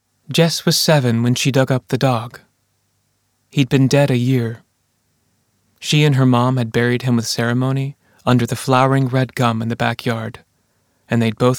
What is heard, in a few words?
Audiobook